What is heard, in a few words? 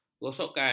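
"gosokan", Malay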